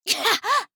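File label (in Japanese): 「ぐはっ」, 女の子 オラオラ系少女 ダメージ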